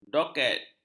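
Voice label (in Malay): "doket", Malay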